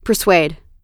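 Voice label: "persuade", American English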